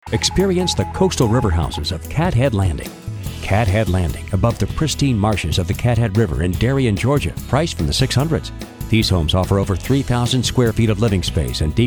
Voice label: Retail Radio; Cathead Landing